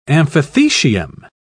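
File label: "amphithecium", British English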